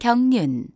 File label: Korean race cycle; samsung YP-U5; Audio User Interface